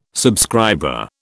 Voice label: "subscriber", British